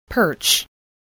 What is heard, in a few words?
"perch", English, voice